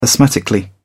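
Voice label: "asthmatically", British English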